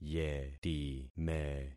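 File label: "yetime", concatenated syllables; Affixing experiment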